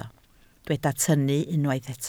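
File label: "tynnu" Welsh, spoken word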